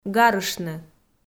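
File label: "гарыштны", Komi, female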